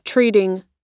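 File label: "treating", US English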